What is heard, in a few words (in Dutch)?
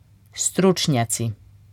strucnjaci, kroatisch